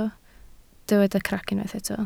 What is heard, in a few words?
"crac", Welsh